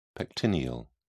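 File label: "pectineal", British English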